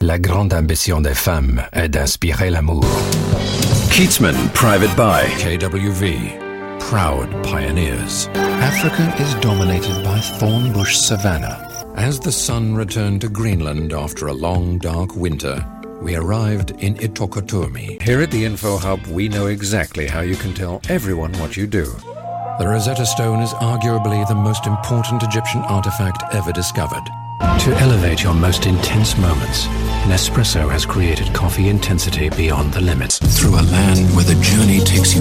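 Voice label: South African English, male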